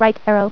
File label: "Right Arrow", female voice; TalkingKeys